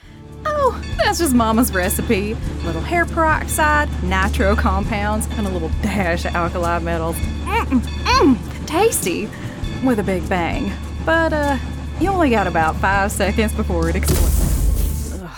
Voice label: Character Demo, voice acting